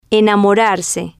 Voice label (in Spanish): "enamorarse de" Spanish